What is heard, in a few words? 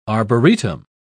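"arboretum", British English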